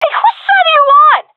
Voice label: taking fire friendly, fem; Source Model Packs; Counter Strike Model Pack; CS2